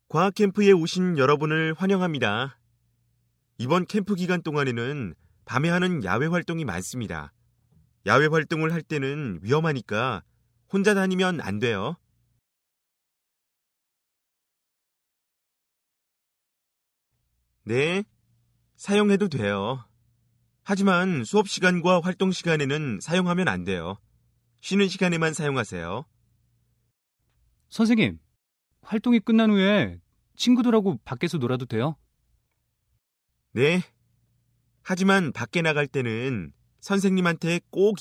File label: english